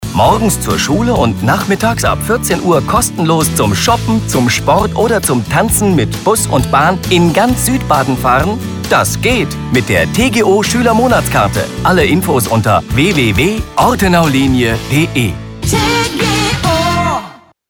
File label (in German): Radiospot Schüler; Ortenaulinie